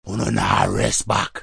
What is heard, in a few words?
rasta devil talk, Rastafarian, vocals and spoken word